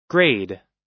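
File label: "grade", American English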